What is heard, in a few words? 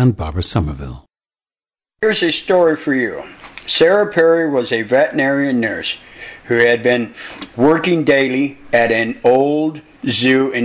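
california, International Dialects of English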